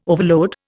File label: "overload", Indian